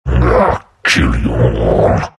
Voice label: anger; troll warlord